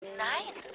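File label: "Nein", German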